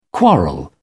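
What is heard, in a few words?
"quarrel", English, British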